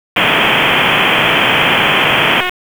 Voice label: PS TAC, p25; wake